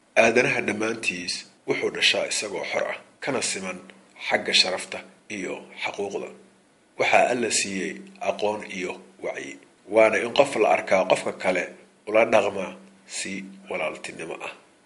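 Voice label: Somali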